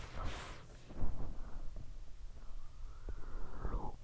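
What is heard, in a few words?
"low", spoken word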